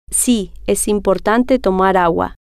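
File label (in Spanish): si es importante tomar agua, Spanish, female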